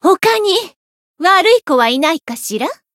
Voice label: growth, jp; sherinu